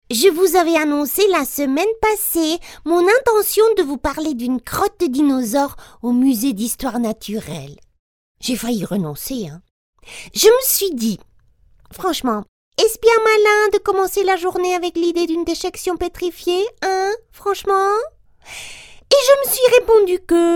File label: Kind FR